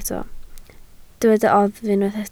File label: "oddf", Welsh